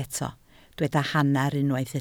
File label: "hanner", Welsh